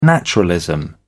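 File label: "naturalism", British English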